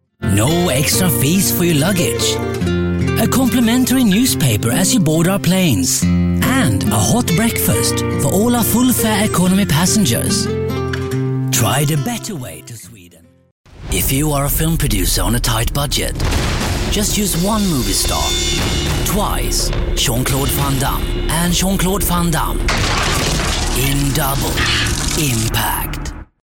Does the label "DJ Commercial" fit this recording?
No